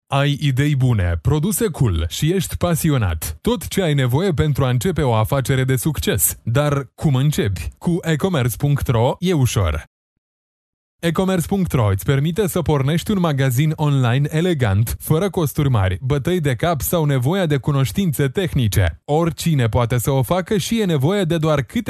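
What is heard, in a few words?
romanian male narration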